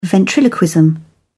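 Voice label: "ventriloquism", British English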